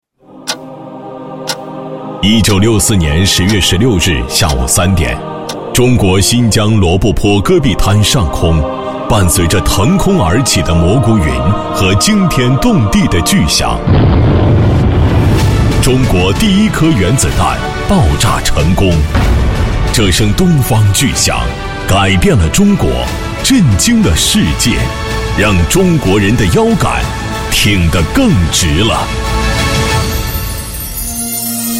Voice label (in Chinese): 男 电台节目包装 文艺广播节目预告